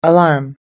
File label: "alarm", us english